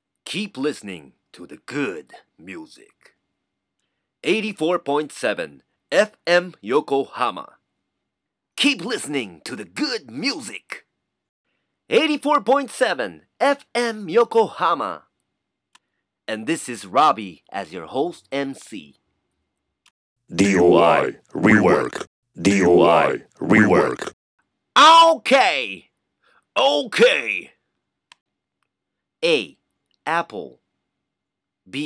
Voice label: narration